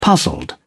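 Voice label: "puzzled", British English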